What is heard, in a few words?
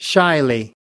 "shyly", us